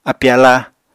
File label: "api-aláa", Apatani